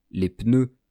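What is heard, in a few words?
"les pneus", French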